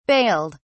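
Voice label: "bailed", British English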